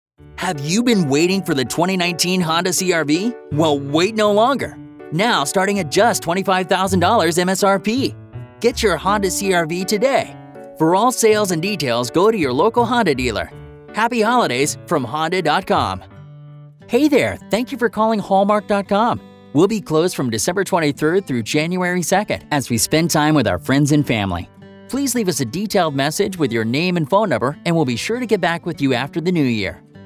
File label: english american ivr, voice actors